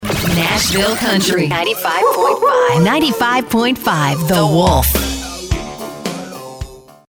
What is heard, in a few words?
Imaging Country